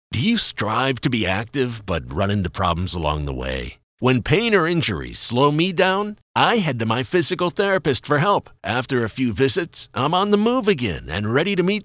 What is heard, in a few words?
Radio Ad; MoveCalifornia